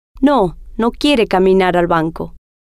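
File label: no Quiere Caminar Banco, Spanish, female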